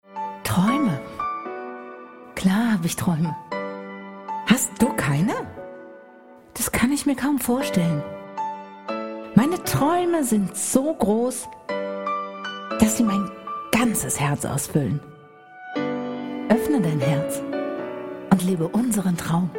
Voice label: female german car comercial